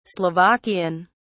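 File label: "slovakian", British English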